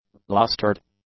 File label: "lustered", English; adjectives